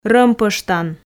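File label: "рӧмпӧштан", Komi, female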